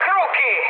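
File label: Italian enemy detected mood high, speech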